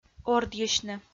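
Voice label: "ордйысьны", Komi-Zyrian, female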